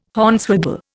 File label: "Hornswoggle", Indian